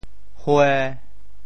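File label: "hue1", Teochew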